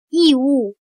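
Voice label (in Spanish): "义务", chino mandarin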